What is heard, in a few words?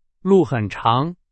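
Chinese, example sentence; hsk2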